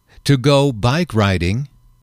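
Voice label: "to Go Bike Riding", male, english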